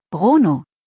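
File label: "Bruno", German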